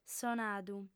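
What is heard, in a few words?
"sonadu", female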